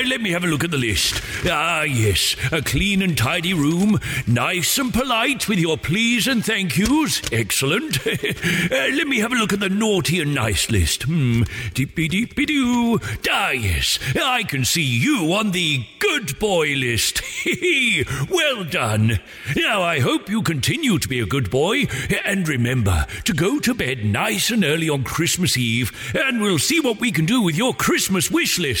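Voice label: Personalised Santa Message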